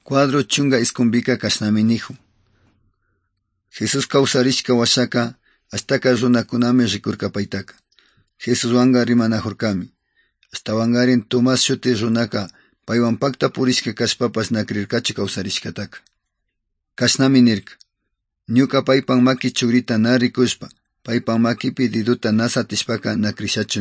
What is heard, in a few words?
good news quichua otovalo; quechua; bible